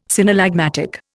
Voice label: "synallagmatic", Indian